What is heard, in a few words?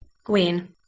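"gwen", Proto-Indo-European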